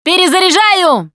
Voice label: reload intense, russian, Survivor Voice Producer

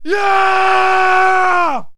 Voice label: man victory; Mount&Blade Warband

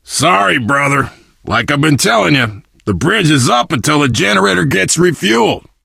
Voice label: initial meeting, survivor voice biker

